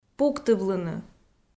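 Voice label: "пуктывлыны", Komi, female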